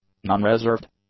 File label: "nonreserved", english; adjectives